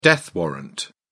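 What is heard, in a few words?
"death warrant", British English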